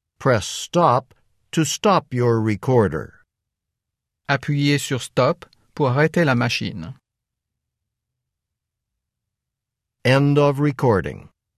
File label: french language directions end of part b